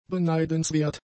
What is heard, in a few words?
"beneidenswert", German; adjectives